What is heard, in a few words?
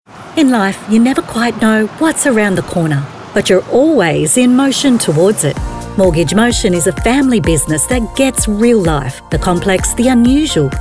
Full Commercial